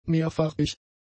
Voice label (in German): "mehrfarbig", German; adjectives